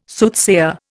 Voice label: "Soothsayer", Indian